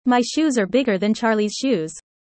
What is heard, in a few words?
user fast; how tall